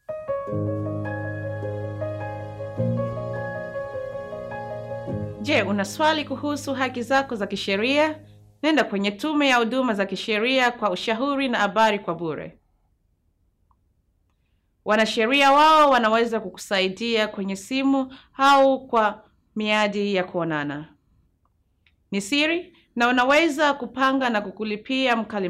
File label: Swahili